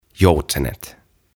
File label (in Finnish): "Joutsenet" "Swans"